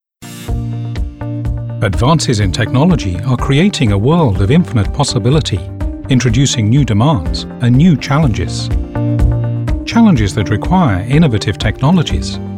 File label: Narrative Showreel, voiceovers